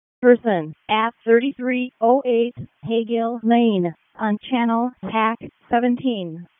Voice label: EMS ALERT, p25; wake